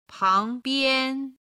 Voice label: "pang2bian1", Chinese